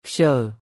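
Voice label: Khmer "ខ្ជិល"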